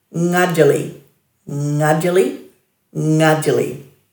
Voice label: "ngadjili-", Dhurga